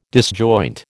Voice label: "disjoint", American